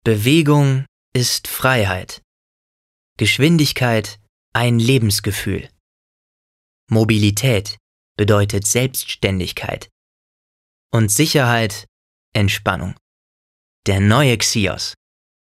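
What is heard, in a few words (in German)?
Werbung, Neutral; Xios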